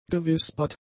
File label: "gewispert", German; adjectives